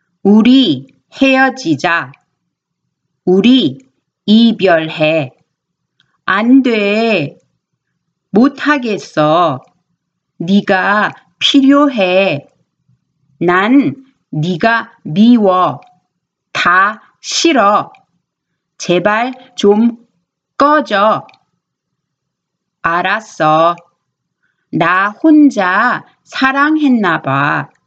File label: Conversation